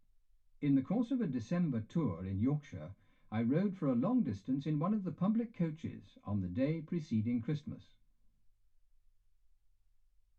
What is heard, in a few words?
male speech english; single mic